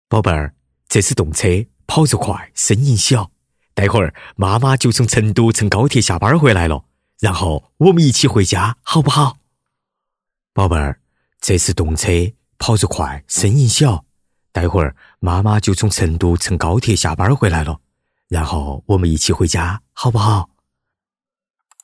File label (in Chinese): 男 四川话 爸爸, 年轻浑厚; 国语男声配音